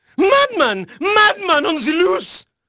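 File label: French male, voice; Pirates Of The Caribbean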